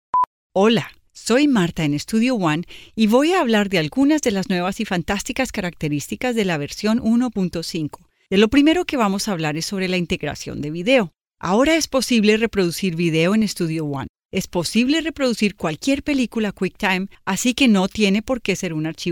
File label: locutora colombiana colombian voiceover; locutora de Colombia.